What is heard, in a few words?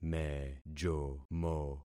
"mejomo", concat syllables; Affixing experiment